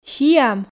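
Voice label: "сиам", Komi, female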